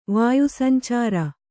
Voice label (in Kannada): "ವಾಯುಸಂಚಾರ", Kannada